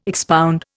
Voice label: "expound", Indian